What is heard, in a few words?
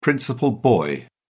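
"principal boy", British English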